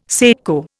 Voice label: "secco", Indian